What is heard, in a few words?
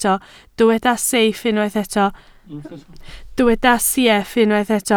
"sieff", Welsh